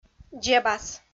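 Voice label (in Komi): "дзебас", Komi, female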